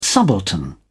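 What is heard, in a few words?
"subaltern", British English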